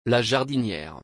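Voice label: "La jardinière", French; باغبان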